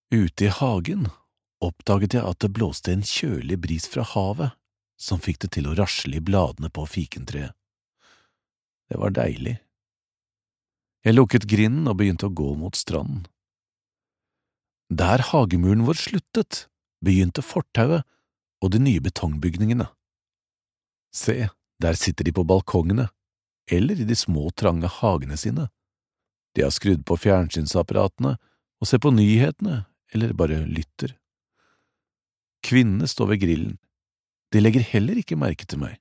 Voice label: norwegian audiobooks